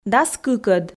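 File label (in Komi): дас кыкӧд, female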